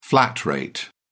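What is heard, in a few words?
"flat rate", British English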